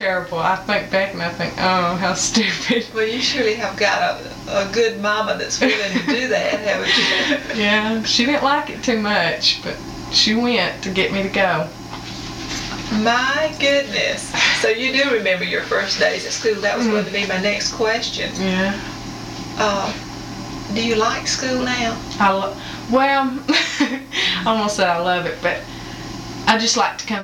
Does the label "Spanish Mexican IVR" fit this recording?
No